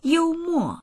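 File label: "you1mo4", Mandarin Chinese; 幽默; ユーモア（のある）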